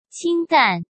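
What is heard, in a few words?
"清淡", Mandarin Chinese; chinese flashcards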